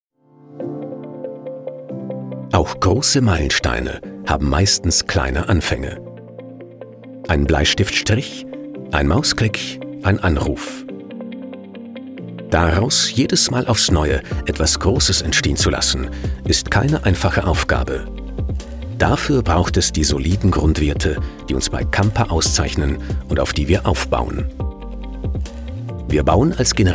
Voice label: Imagefilm Baumanagement

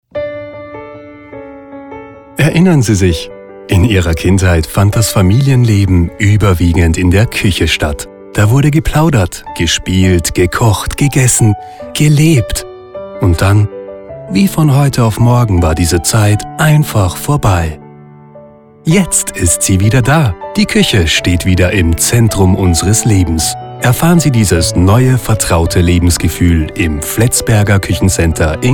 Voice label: Spot; Fletzberger Familie

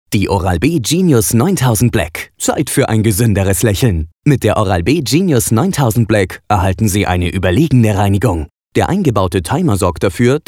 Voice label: WERBUNG; Oral-B Genius 9000

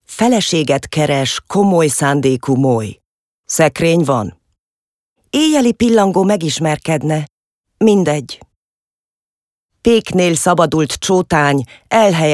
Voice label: Ungarisch

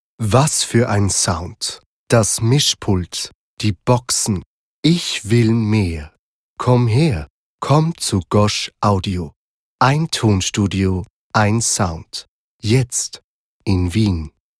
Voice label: zaertlich

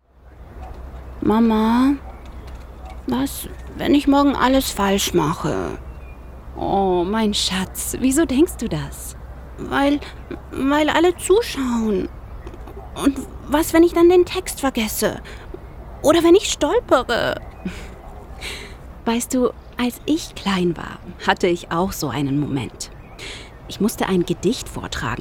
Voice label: Hörspiel Mutter Kind

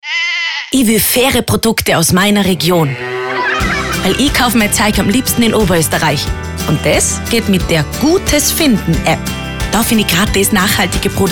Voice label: SPOT; Gutes Finden App 2019

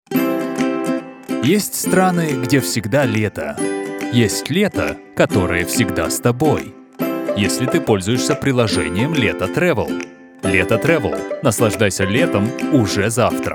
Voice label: werbung

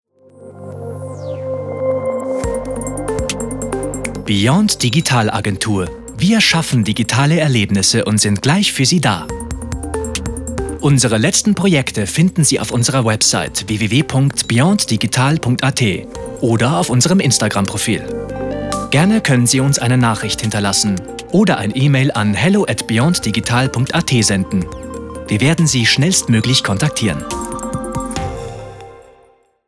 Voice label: TEL Text vor Melden; Beyond Digital

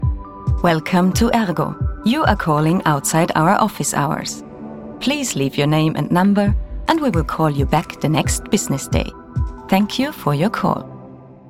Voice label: Telefonansage Englisch; ERGO

